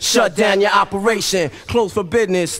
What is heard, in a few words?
92 BPM